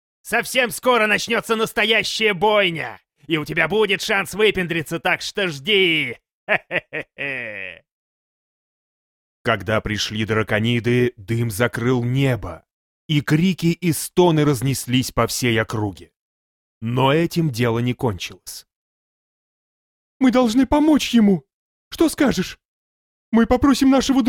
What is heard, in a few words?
male russian video game